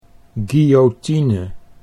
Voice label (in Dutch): "guillotine", Dutch